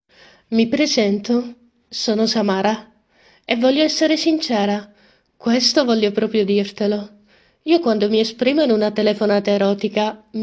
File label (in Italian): linea erotica